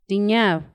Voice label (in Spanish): "noche", Paipai; Vocabulary; INALI